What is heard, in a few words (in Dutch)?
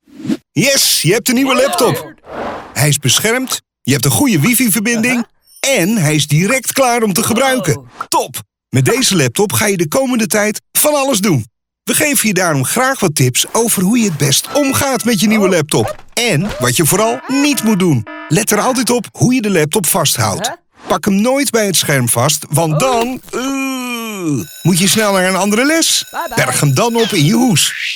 stemacteur online uitleganimaties